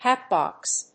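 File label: "hatbox", English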